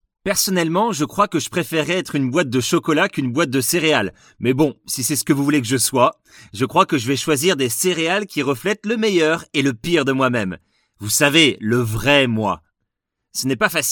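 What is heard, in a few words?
French, Commercial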